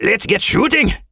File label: dwarf Archer taunt